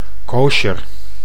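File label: "kosjer", Dutch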